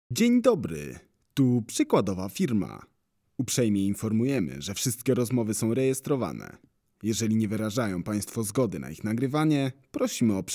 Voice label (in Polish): glos meski; wirtualna centrala